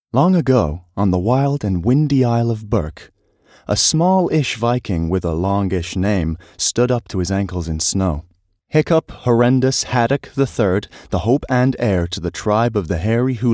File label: Audiobook